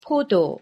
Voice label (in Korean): "포도" Korean; pronunciation